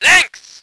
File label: voice ger vehicle